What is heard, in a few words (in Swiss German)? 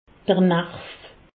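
"narv", Haut Rhin; medecine; nerf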